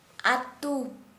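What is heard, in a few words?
Santali female village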